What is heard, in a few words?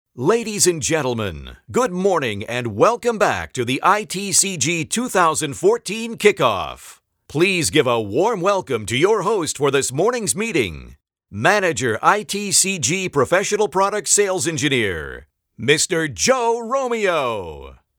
Greetings Sample